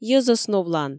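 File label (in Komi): "йӧзӧс новлан", Komi, female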